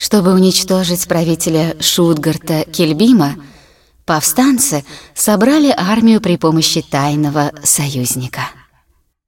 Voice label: cinematic voice, ru; lineage2